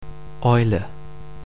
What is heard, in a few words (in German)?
"Eule", pronunciation